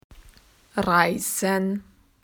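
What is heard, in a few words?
"to travel", Australian English; PictureDictionary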